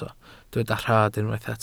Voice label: "rhad", Welsh

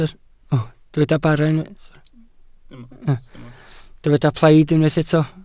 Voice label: "plaid", Welsh

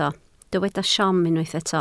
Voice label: "siom", welsh

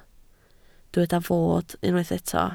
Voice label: "fod", Welsh

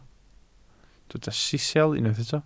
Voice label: "sisial", welsh

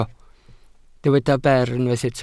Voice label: "ber", Welsh

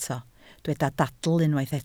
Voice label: "dadl", Welsh

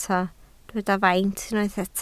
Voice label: "faint", Welsh

